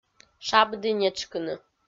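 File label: "шабді нетшкыны", Komi, female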